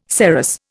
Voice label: "saros", Indian